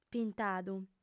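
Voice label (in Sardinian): "pintadu", female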